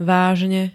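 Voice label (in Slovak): "vážne", Slovak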